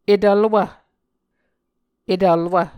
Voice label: "etvlwv", muscogee